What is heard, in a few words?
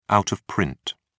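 "out of print", British English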